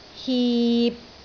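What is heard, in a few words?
"hip6", Cantonese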